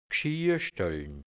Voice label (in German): "Gschiastölln", Mundart, Burgenland, hianzisch; Geschirrstellage; Schüsselkorb